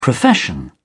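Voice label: "profession", English, British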